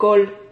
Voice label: "col", Silabas

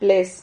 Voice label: "ples", Silabas

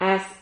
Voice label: "ads", Silabas

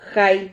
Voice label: "jay", Silabas

